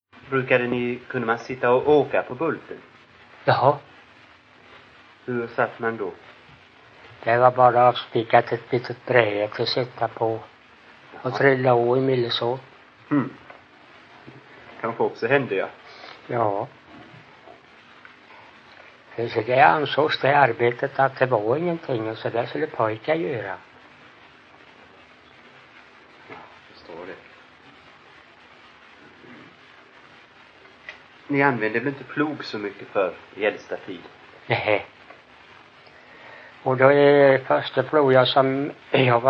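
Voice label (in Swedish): Ingatorp, Swedish dialect; Folkminnen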